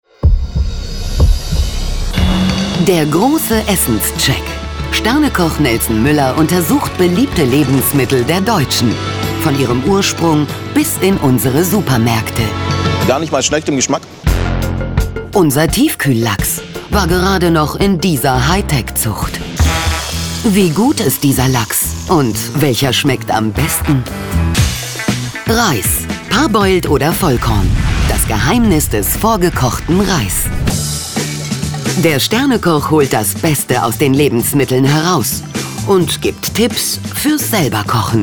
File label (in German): Doku